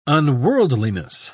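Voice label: "unworldliness", English, British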